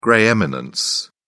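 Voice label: "grey eminence", British English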